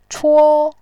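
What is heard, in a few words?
"chuo", Mandarin Chinese, first tone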